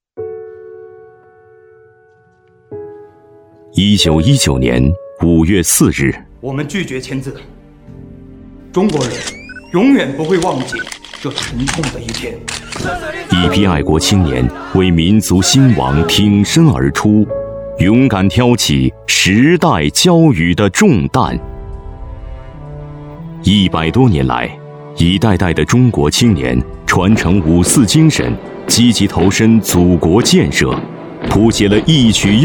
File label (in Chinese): 男 历史纪录片; 男B12-高端大气; 质感磁性; 《五四青年节-青春就该这样》